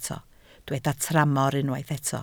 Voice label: "tramor", Welsh